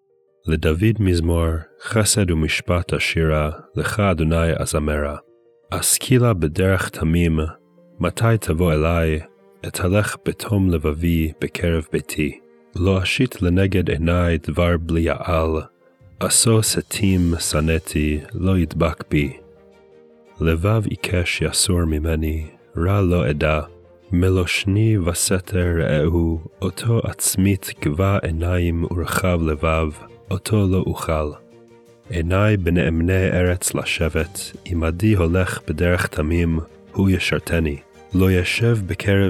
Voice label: poetry and prayer psalm hebrew; Meir Soloveichik